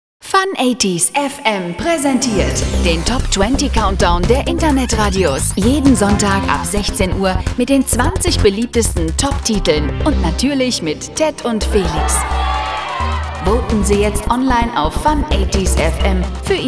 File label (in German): Promo Jingle, sprechen und gesang